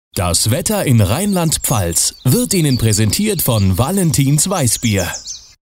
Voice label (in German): Dynamisch